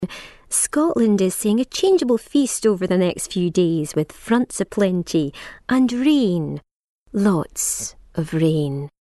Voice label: scottish accent

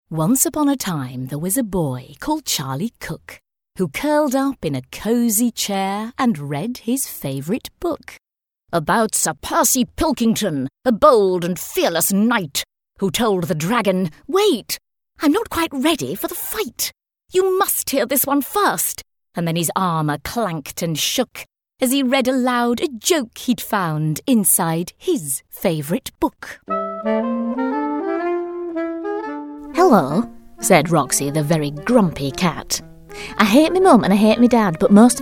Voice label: audiobook

